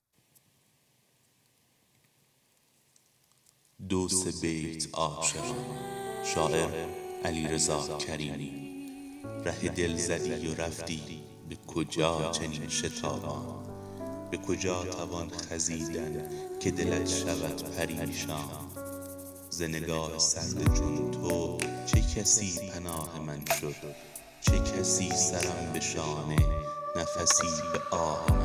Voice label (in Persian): دکلمه عاشقانه